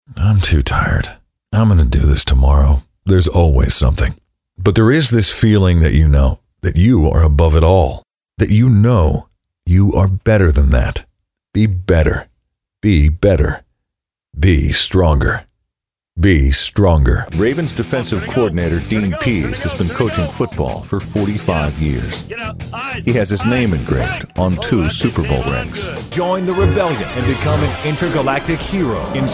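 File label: American, male; lektoring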